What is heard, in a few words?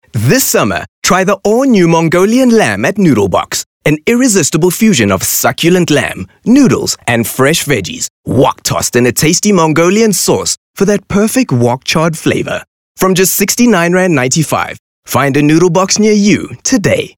English HardSell